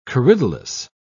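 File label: "corydalis", British English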